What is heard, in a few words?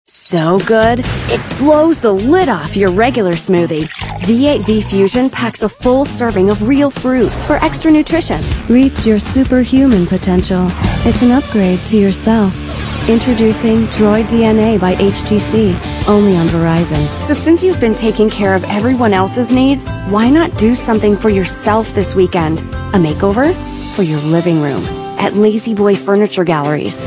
female, late 20s late 30s clear